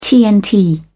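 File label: "tnt", British English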